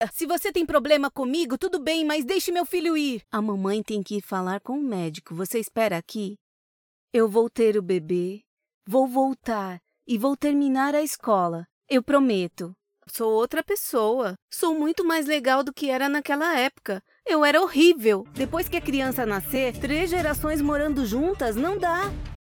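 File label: Young Adult or Teen Dubbing Brazilian Portuguese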